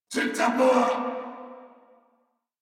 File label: Reload Corpus Venus Male; Warframe; Battle Barks